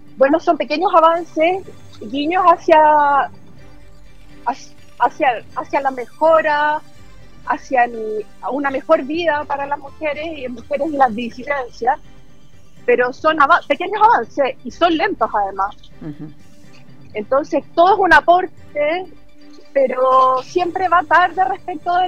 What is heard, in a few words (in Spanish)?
cuña; marcha 8m